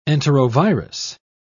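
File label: "enterovirus", British English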